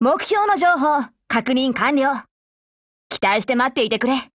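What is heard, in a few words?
operation begin, voice, Japanese; GF Alarm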